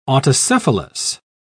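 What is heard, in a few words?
"autocephalous", British English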